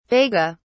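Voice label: "vega", British English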